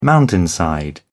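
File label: "mountainside", British English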